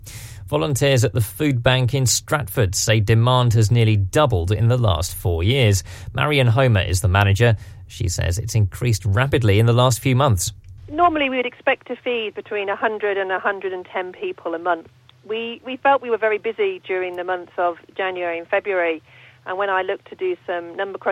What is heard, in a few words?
news